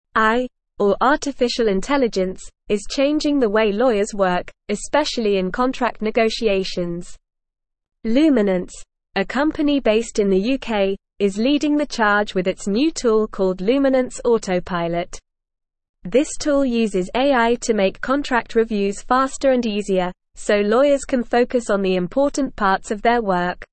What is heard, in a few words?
English Upper Intermediate NORMAL Reading; Luminance to Launch Automated Contract Negotiatio; English Newsroom